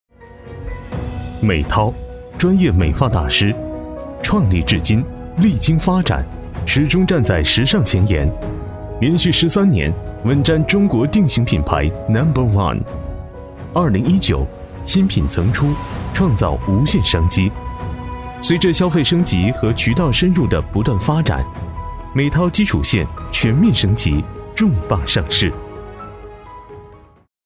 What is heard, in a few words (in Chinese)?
男-广告; 美涛品牌